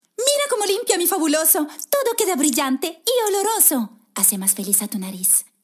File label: Spanish Neutral